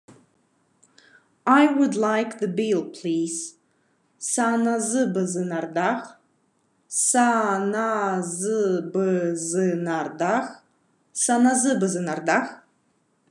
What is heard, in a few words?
Khakas I'd like the bill please